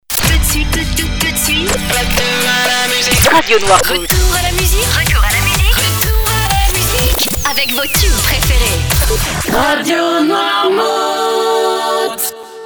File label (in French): retour de la musique, jingles